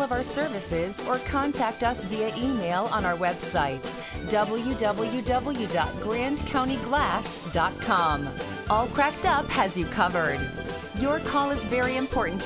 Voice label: Glass Company On Hold Message